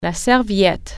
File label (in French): "towel", French